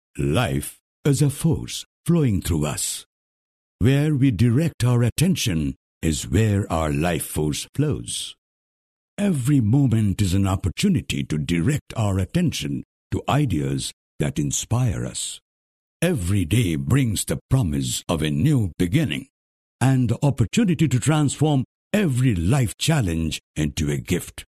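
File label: Bengali, male, English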